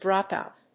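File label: "dropout", English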